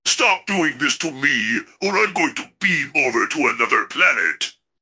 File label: upset; fertig classic games